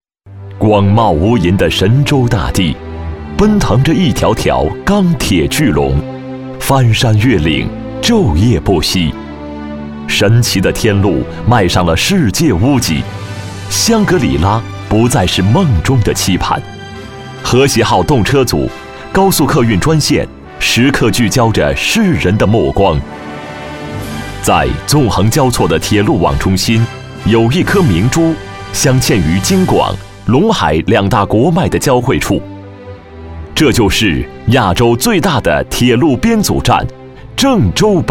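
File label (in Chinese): 男国大音; 郑州北站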